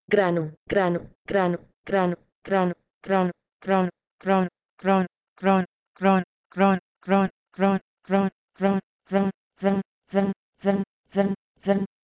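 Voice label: Proto-Indo-European grh2no to Czech zrn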